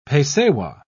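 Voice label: "pesewa", British English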